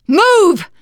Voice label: emphatic go, teen girl